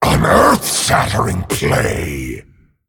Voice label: Male Dragon Well Played